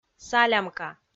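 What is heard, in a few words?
"салямка", Komi, female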